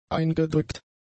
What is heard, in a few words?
"eingedrückt", German; adjectives